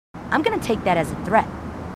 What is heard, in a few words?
marines female, marine tough